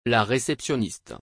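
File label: "La réceptionniste", French; متصدی پذیرش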